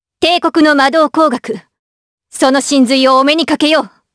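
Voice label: Vox Skill, voices, Japanese; Chrisha; kingsraid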